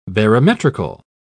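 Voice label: "barometrical", British English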